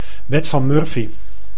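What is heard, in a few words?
"wet van Murphy", Dutch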